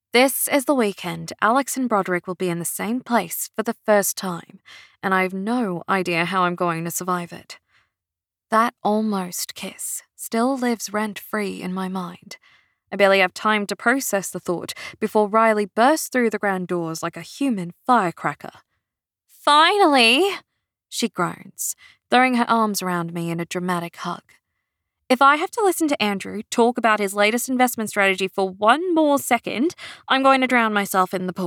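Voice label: Australian Accent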